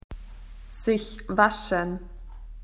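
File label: "to wash yourself", Australian English; PictureDictionary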